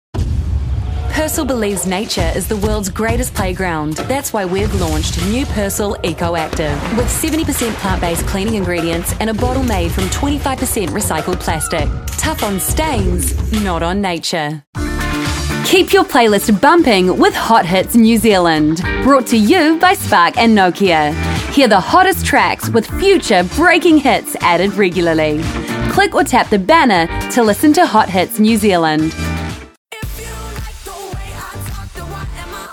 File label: Voice-over